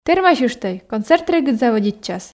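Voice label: "тэрмасьыштӧй концерт регыд заводитчас", Komi, female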